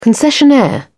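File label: "concessionaire", British English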